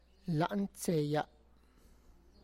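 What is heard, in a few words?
my palate, Tlacochahuaya Zapotec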